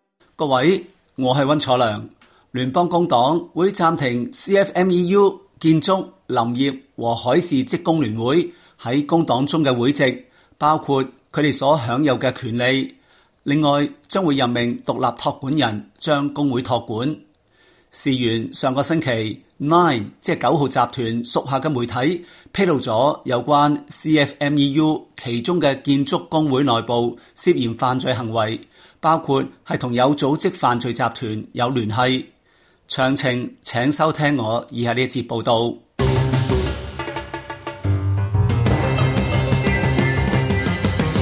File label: cantonese; 【建築工會風雲】澳洲工黨及全國總工會齊出手 暫停工會會籍; SBS Chinese